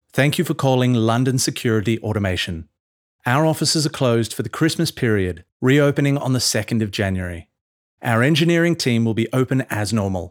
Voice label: 外籍男 英式

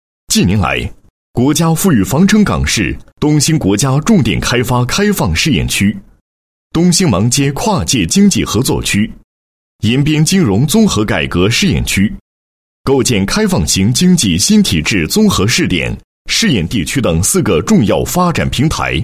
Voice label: 男 工作汇报; 经济转型升级重点工作成效汇报

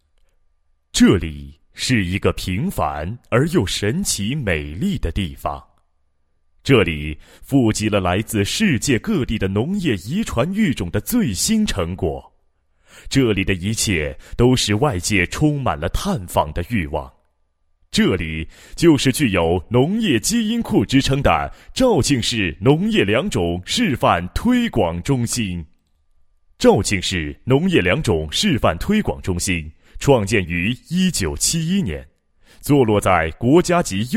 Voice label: 男, 国语男声配音 厚重大气; 农业推广中心

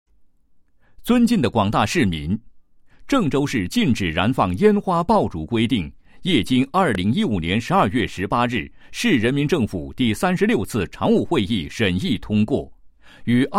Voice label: 男 通告 致广大市民的一封信

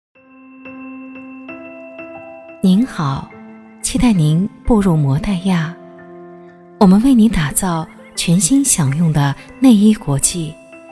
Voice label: 女 彩铃 内衣品牌彩铃, 优雅; 国语女声配音

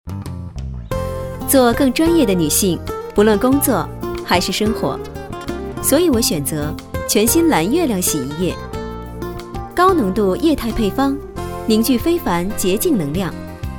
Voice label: 女 电视广告 干练成熟 洗衣液, 国语女声配音; 蓝月亮洗衣液

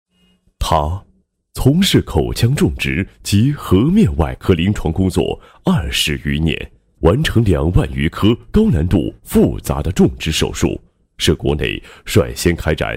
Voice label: 男, 医院广告 口腔医院广告配音; 协和口腔医院

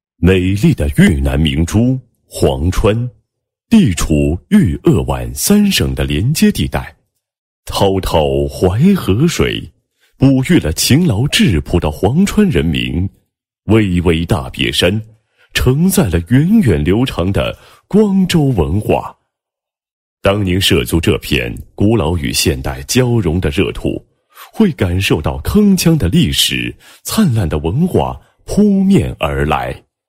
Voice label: 男-政府; 工商质监局文明创建

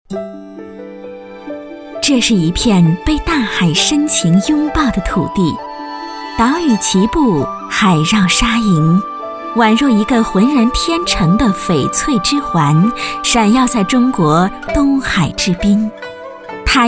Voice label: 女 宣传片; 海韵玉环; 国语女声配音

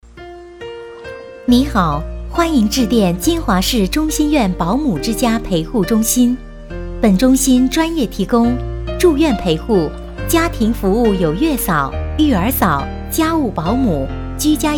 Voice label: 彩铃, 国语女声配音

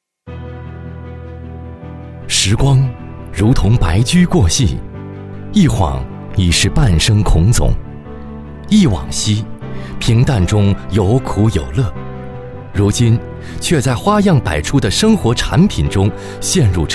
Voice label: 男 中文 讲述; 全福全有

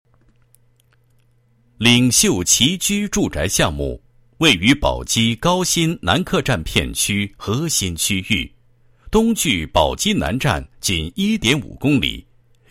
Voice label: 男 项目解说词; 岭秀崎居项目解说词

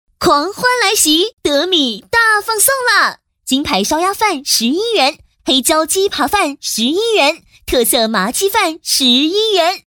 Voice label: 女, 叫卖广告; 德米大放送

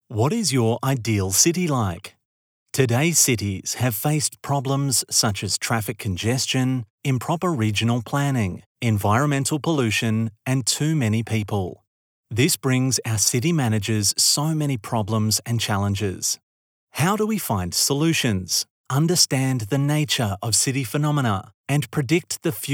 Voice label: 外籍男, 宣传片; 京东智能城市

